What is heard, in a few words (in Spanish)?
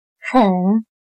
"很", Chino